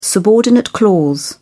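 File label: "subordinate clause", British English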